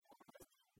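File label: "Помӧсдін", female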